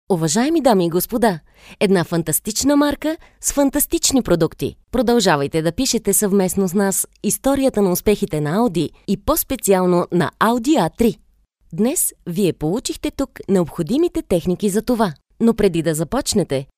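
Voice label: Bulgarian, female, narration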